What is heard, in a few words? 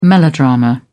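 "melodrama", British English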